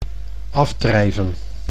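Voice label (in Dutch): "afdrijven", Dutch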